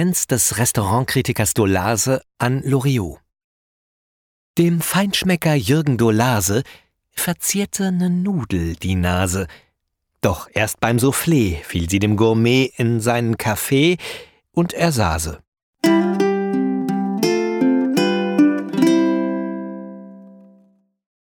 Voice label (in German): Podcast Limerick